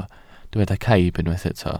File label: "caib", Welsh